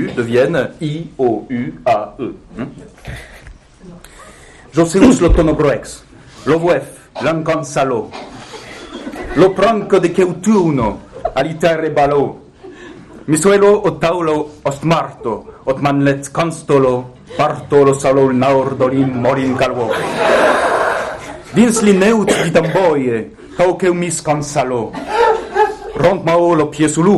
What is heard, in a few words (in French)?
Patois